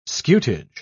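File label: "scutage", English, British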